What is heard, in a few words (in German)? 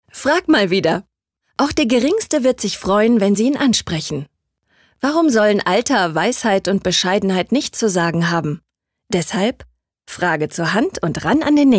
Werbung Fech